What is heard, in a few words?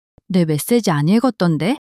sulk, monologue